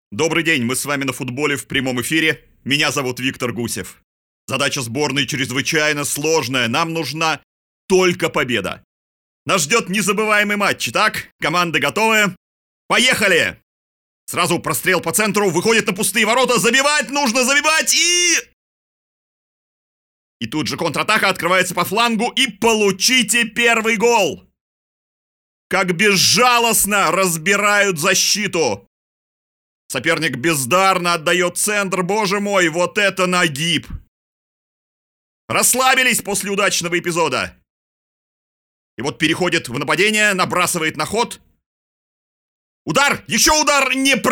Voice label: diktor